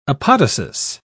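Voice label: "apodosis", British English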